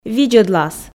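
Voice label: "видзӧдлас", Komi, female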